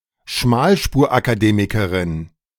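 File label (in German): "Schmalspurakademikerin", German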